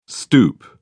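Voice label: "stoep", English, British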